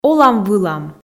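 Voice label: "олам-вылам", Komi, female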